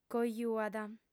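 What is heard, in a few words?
"cojuat", female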